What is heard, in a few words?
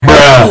"bruh" but loud